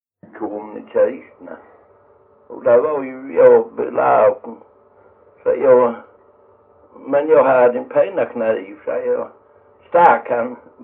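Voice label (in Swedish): Jämshög Blekinge, dialekter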